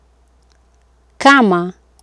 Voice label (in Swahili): "kama" Swahili